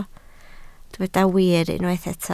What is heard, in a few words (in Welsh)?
"wir", Welsh